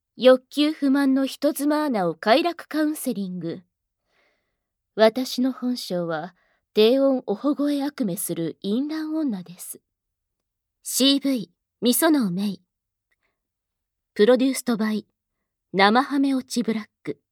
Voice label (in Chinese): 標題朗讀